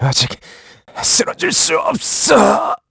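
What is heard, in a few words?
Vox Dead, Korean; Riheet; kingsraid; voices heroes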